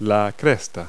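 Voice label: "cockscomb", English word; Multi-Lingual Talking Picture Dictionary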